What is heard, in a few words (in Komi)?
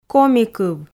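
"коми кыв", Komi, female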